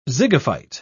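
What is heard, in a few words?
"zygophyte", British English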